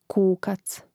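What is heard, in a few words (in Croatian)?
"kukac", Croatian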